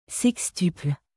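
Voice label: "sextuple", French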